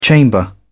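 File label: "chamber", British English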